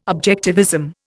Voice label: "objectivism", Indian